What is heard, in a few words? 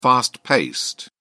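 "fast-paced", British English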